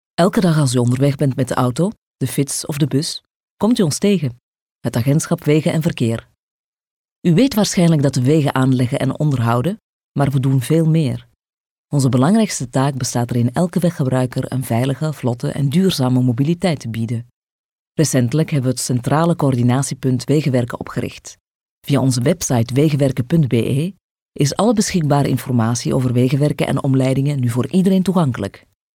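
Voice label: Flemish, female; voice over talent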